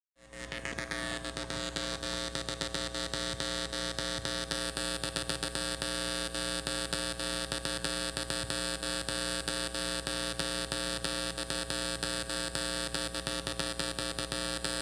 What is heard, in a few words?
voice, noise in studio monitors and amp